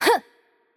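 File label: Attack Light, Russian; bloom minigame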